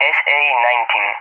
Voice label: "SA-9", ENG; AirDefence; SA-19; DCS; Allied Flight

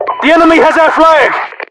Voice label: our flag, UK; english; voiceovers; mpvoice

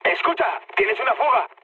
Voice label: damaged water, mood med, speech; War Thunder

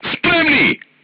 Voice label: voice message load, crew tanks serbian

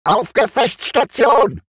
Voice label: robot Lets Battle; World Of Warships

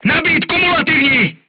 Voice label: voice message load HEAT, crew tanks czech

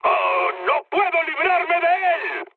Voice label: aircraft damaged, mood high, speech; War Thunder

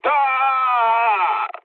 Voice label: damaged pilot mood low; speech; War Thunder

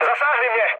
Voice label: aircraft damaged, speech cze, mood low

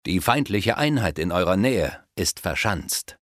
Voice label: Fortified Units, Speech German Advisors; Civ5

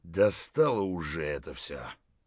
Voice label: mutter, voice; Escape from Tarkov

